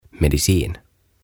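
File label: "Medicin", Swedish, "Lääke", Finnish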